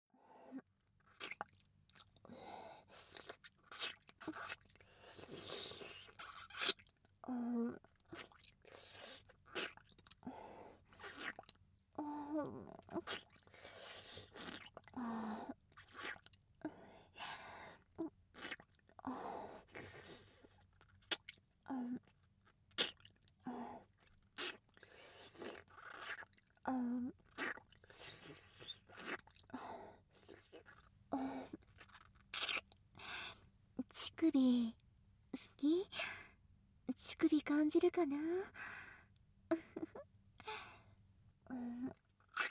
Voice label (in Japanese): 香りが誘う耳舐めフェラ; 耳舐めサキュバス7 -香りが誘う保健室の淫夢